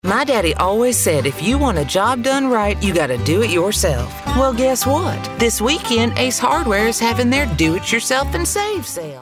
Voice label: southern

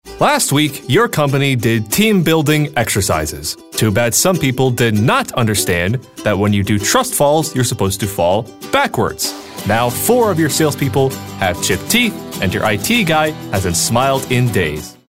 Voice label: storyteller

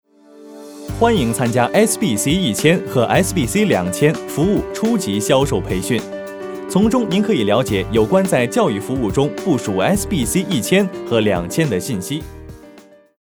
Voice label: Mandarin, Corporate

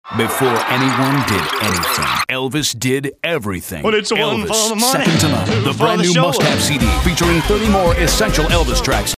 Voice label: high energy

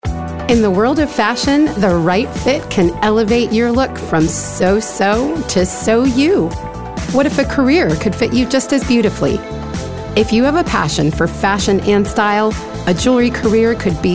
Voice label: Bright

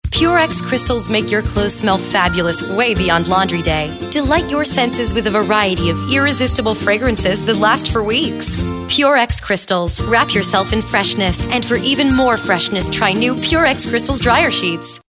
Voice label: anti annoucer upbeat

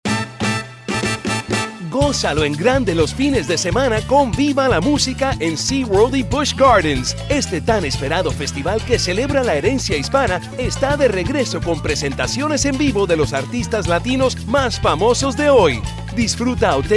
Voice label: animated friendly genuine spanish

